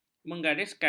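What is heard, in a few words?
"menggariskan", Malay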